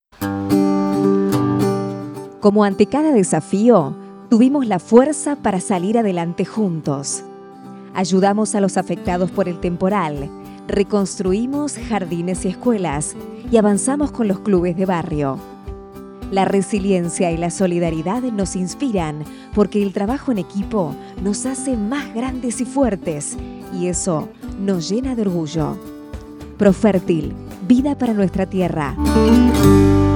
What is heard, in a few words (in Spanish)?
Spot radio; Reconstruccion